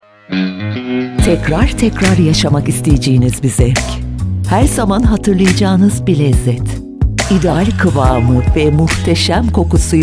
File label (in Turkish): reklam; dr oetger